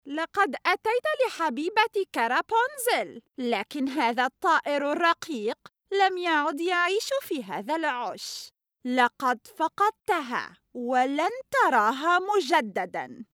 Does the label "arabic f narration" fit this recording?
Yes